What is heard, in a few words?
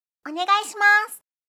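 "onegaishimasu", Japanese; aisatsu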